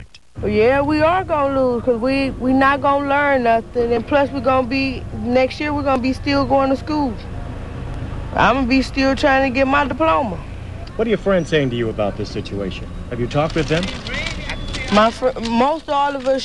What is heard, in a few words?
AAE Cleveland, African American